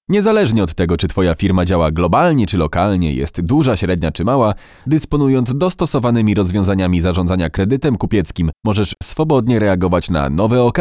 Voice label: Male VO Corporate

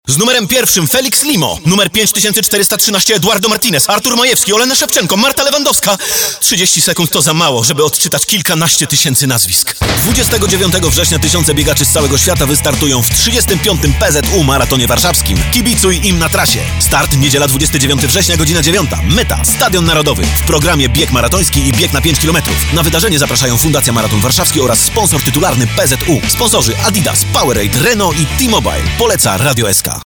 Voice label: Male VO Aktorska

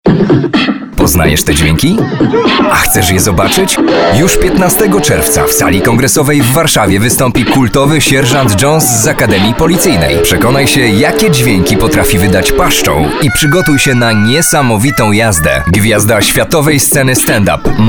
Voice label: Male VO Commercial

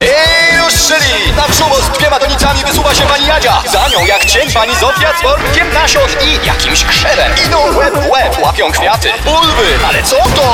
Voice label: Male VO Aktorskie

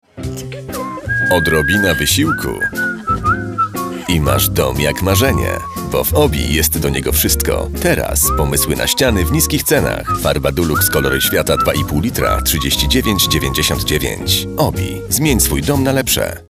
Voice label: Male VO Commercial

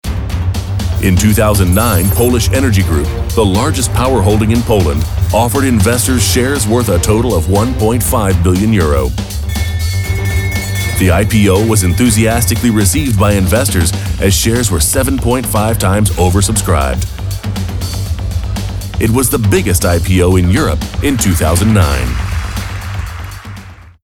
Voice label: Male US Commercial

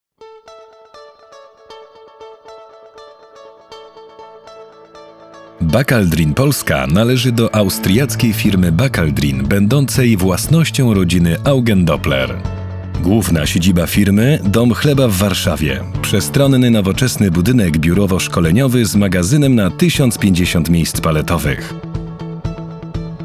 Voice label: Male VO Corporate

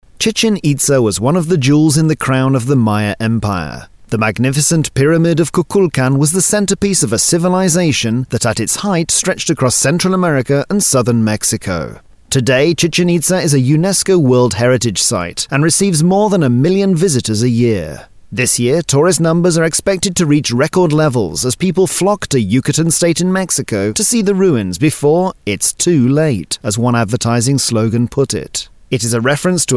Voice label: Male UK Corporate

